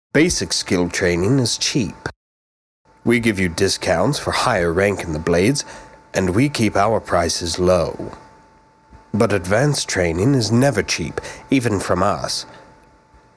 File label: high elf voice audition